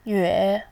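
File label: "nve", Mandarin, tone 3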